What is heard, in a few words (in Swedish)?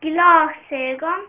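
"glasogon", Swedish; tdegames; ktuberling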